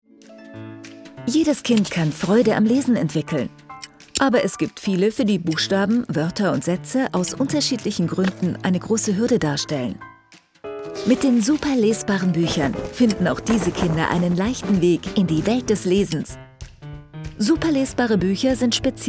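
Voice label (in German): Imagefilm jung erklärend; Superlesbare Buecher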